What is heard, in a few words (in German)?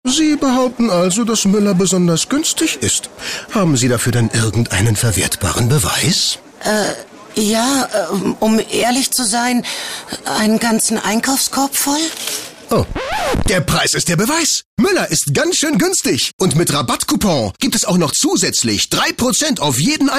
Schauspiel Dynamisch